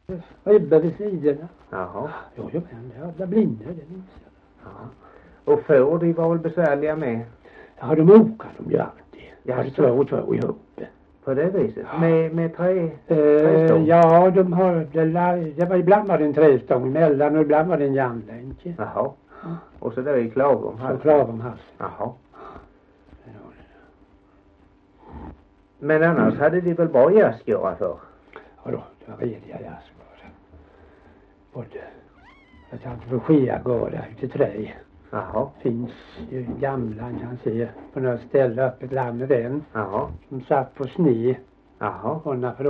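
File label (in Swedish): Gällared Halland, dialekter; Folkminnen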